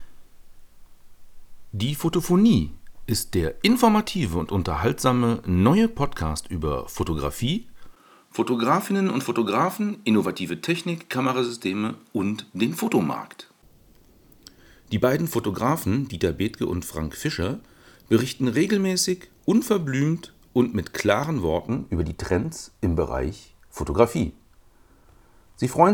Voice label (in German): 6 Mikrofone hintereinander im Wechsel; zum videos drehen mit der dslr spiegellosen